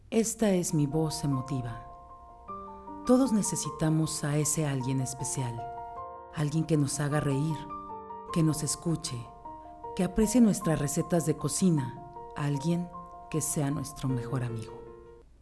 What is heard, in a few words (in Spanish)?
EMOTIVA, locutora